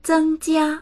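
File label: "zeng1jia1", Mandarin Chinese; 增加; 増える、増やす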